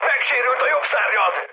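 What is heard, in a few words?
Hungarian right wing mood high, speech; War Thunder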